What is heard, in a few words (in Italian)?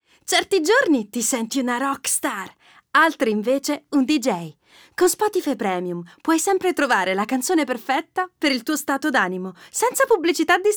Spot Dinamico, voice-over